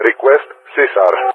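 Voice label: "Request CSAR", English, GREECE; Allied Flight; DCS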